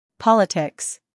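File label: "scandal", American; politics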